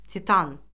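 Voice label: "titan", Azerbaijani; Orfoqrafiya Lüğəti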